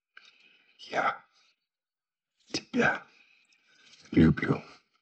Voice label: russian i love you